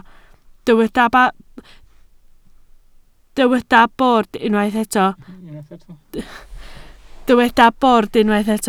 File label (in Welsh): "bord", Welsh